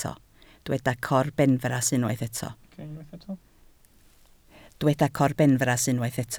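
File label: "corbenfras" Welsh, spoken word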